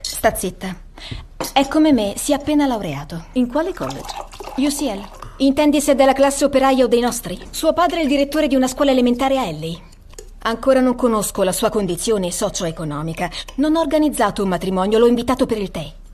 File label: Dubbing